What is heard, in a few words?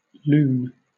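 "lune", British English; pronunciations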